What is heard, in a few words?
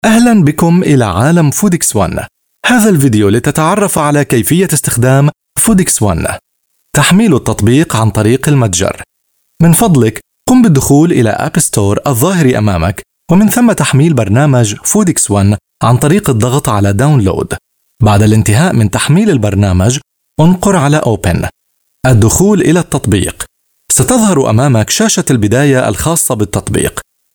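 Arabic, seslendirme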